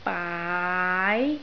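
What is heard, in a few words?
"baai2", Cantonese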